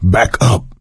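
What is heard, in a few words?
back up quiet, voice, male; Left 4 Dead 2; coach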